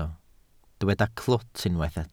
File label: "clwt", Welsh